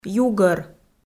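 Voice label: "югӧр", Komi, female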